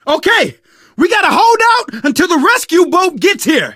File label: radio used boat, survivor voice; source engine; left 4 dead 2; manager